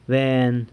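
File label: "ben", dregs, San Lucas Quiaviní Zapotec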